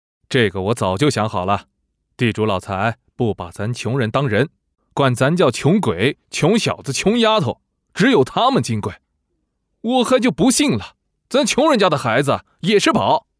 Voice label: 角色年轻父亲

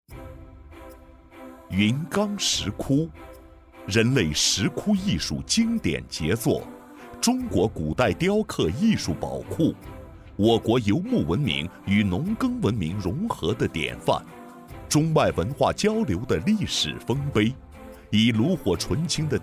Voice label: 稳重有底蕴 山西文化

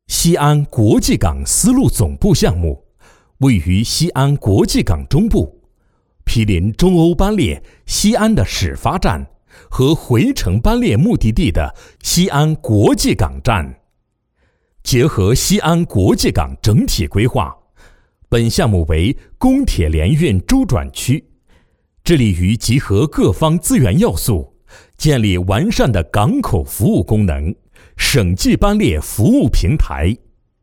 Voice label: 课件; 西安国际港丝路总部项目

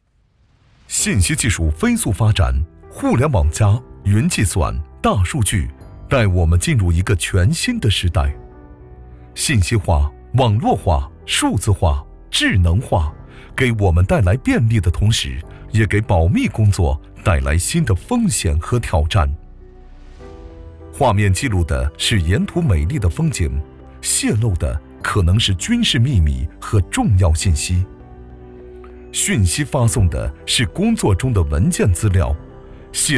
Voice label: 课件保密

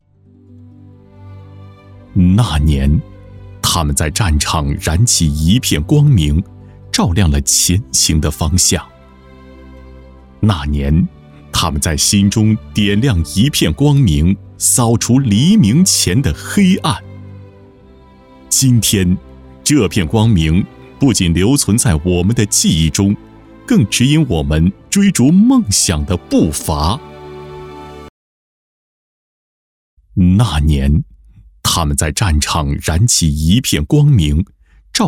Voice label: 专题片大气深情; 奋斗中的我们

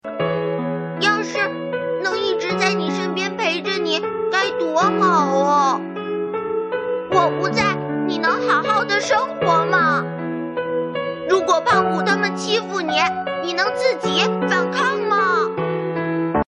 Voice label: 动画角色; 机器猫

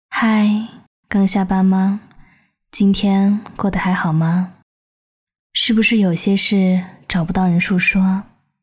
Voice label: 御姐样音

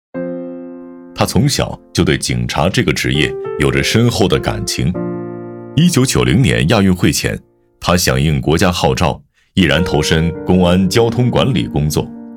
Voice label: 讲述感纪录片

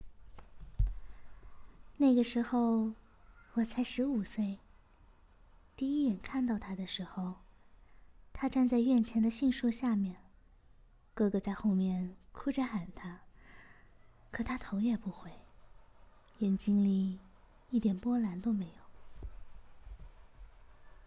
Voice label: 青年女

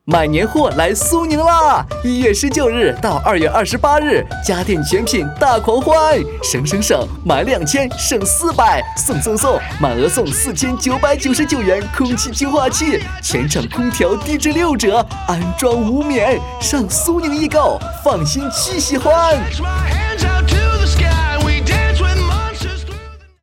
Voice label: 促销; 苏宁易购

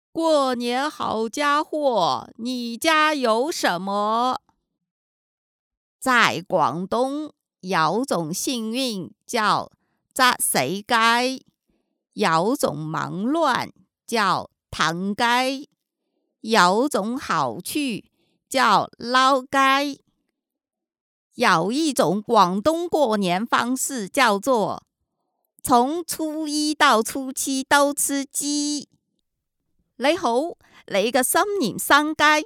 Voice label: 广告 广东篇

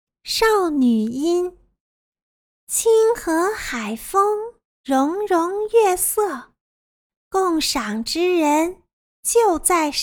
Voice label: 少女音; 有声读物&自媒体

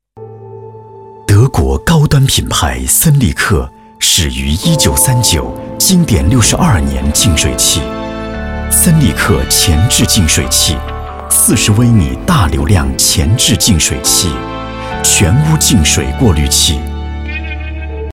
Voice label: 大气高端家电产品宣传片

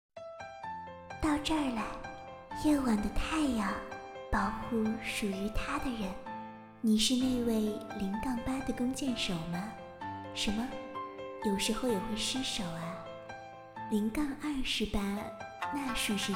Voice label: 温柔声线; 嫦娥

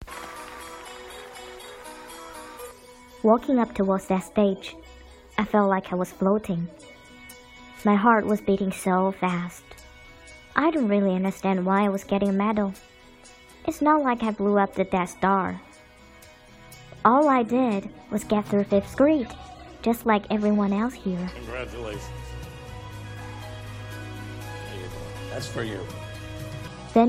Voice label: 英文影视旁白

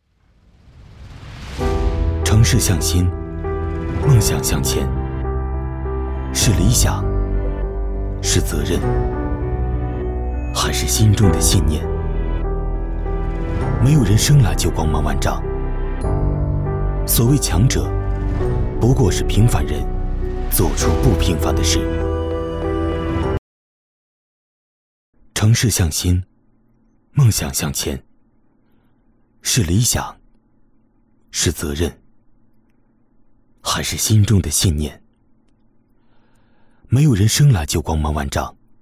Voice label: 历九弥坚与城共生男

